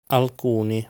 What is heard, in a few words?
"Alcuni", Italian